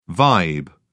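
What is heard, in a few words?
"vibe", British English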